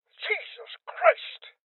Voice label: Voices Heavy Losses Male Old